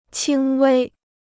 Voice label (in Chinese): "轻微", Chinese